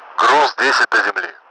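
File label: Russian speech, Mi-8MT; Messages ten to ground; DCS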